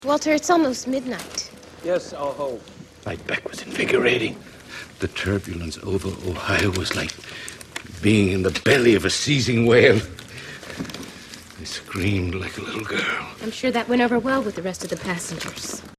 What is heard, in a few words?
i screamed like a little girl